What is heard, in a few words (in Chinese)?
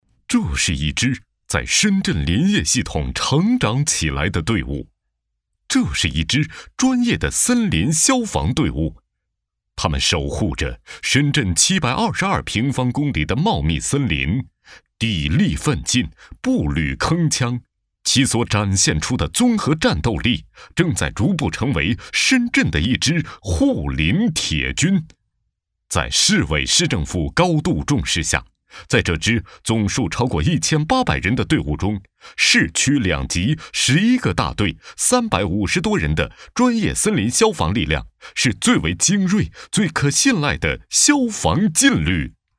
男 专题; 护林铁军